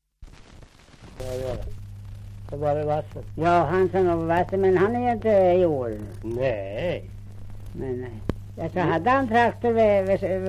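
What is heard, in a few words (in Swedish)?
Björnlunda Södermanland, dialekter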